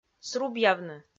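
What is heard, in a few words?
"срубъявны", Komi, female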